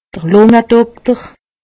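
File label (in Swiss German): "lungedokter", Haut Rhin; medecine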